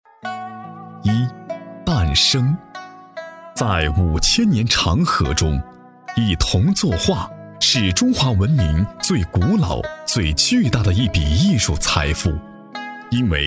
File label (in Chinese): 男 纪录片配音 大气古典; 大气磁性 大气浑厚